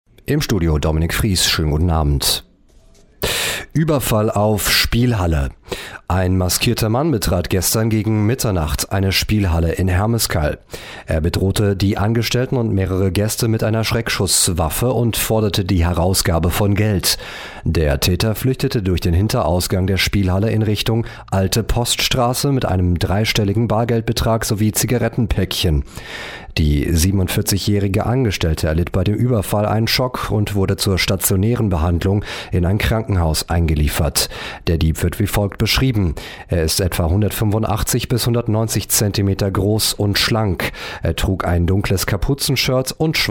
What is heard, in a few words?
news aircheck